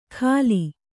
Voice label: "ಘಾಲಿ", Kannada

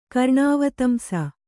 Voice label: "ಕರ್ಣಾವತಂಸ", Kannada

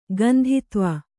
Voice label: "ಕಾಂತತ್ವ", Kannada

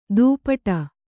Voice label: "ದುಪಟ", Kannada

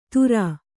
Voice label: "ಧುರ", kannada

